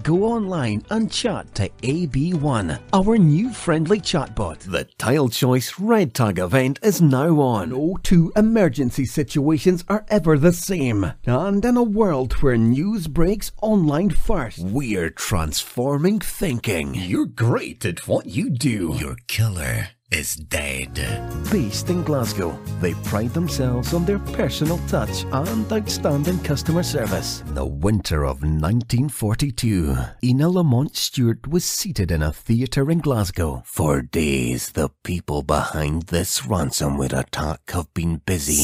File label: english scottish commercial